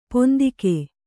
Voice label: "ಪಿಂತೆಗೆ", Kannada

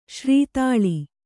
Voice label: "ಶ್ರೀತಾಳ", Kannada

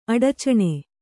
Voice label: "ಅಡಚಣೆ", Kannada

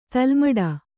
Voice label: "ತಲೆಮುಡಿ", kannada

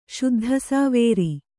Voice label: "ಶುದ್ಧಸಾವೇರಿ", Kannada

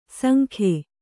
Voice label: "ಸಂಗಿ", kannada

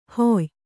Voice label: "ಹೊಯ್", kannada

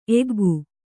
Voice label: "ಏಕೈಕ", Kannada

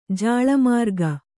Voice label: "ಜಾಳಮಾರ್ಗ", kannada

